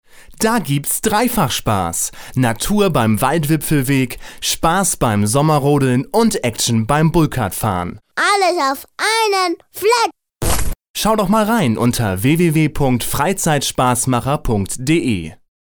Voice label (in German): Werbung Reminder; Freizeitspassmacher St Englmar